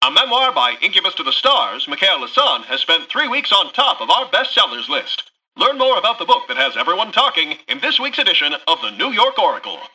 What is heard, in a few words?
Newscaster headline